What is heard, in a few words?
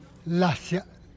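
"la ziaa", Teotitlán del Valle Zapotec; ankle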